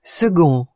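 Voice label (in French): "second", French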